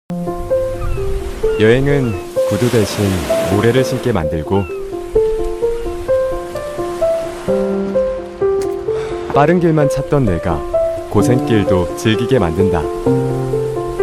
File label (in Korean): 광고 남쪽빛감성여행; 한국관광공사